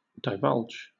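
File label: "divulge", British English; pronunciations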